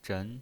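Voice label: "zhen" Mandarin Chinese pinyin voice, second tone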